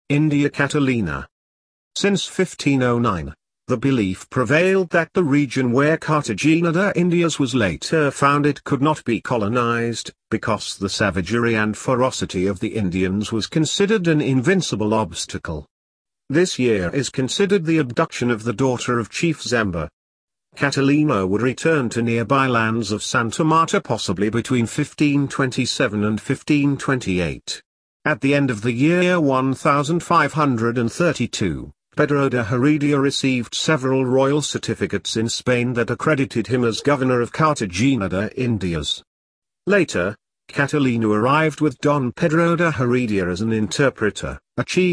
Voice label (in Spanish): inglés